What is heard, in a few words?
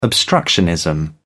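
"obstructionism", British English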